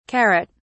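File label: "carrot(s)", English, British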